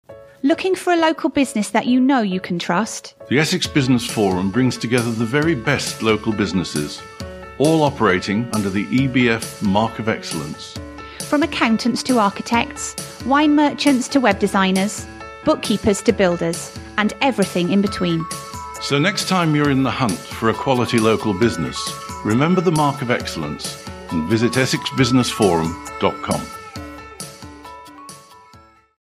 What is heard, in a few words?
Advert